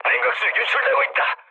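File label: Korean damaged water mood low; speech; War Thunder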